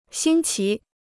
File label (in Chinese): "新奇", Chinese